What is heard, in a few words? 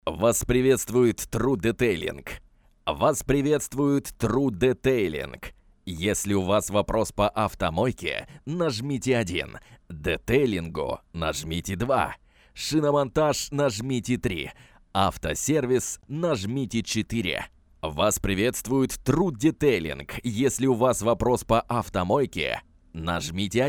ivr; Tru detejling